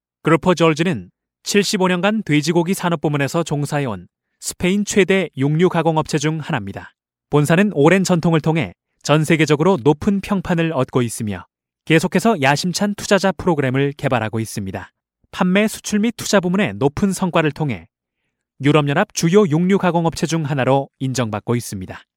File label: korean